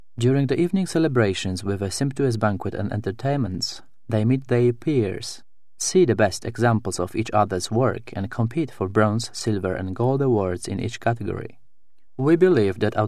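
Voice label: Polish, English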